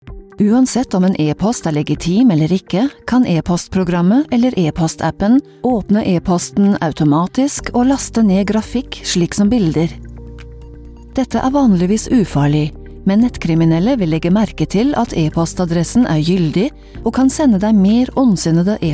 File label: norwegian documentaries; professional voice over artist native in both German and Norwegian